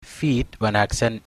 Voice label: good evening, somali

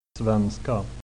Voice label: "swedish", swedish

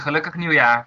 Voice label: new year, dutch; Gelukkig Nieuwjaar

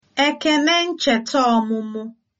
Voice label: birthday, igbo

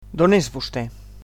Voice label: "where from", Catalan

